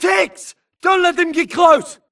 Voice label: grunt react Ticks Nearby; imc; Titanfall 2